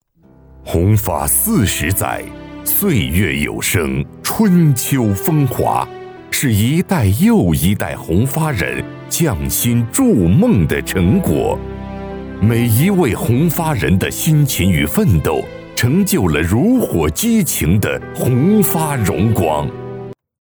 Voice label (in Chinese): 男 厚重走心; 企业宣传片; 宏发